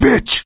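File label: warn witch, voice, male; Left 4 Dead 2; coach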